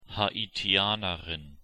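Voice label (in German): "haitianerin", German; pronunciation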